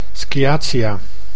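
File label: "sciatia", Dutch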